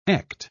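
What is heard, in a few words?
"echt", British English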